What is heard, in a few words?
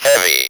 ROB VOX; E-Lab; X Static Goldmine 1